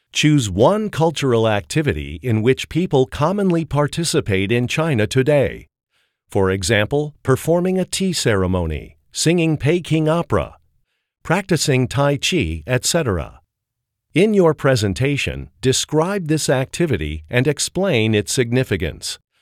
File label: Chinese cultural presentation; Chinese Cultural Perspective Presentation; AP Chinese Language and Culture